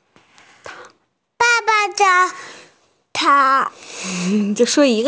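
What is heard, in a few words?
"tang2", Mandarin Chinese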